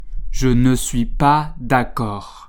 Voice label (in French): "je ne suis pas d'accord", French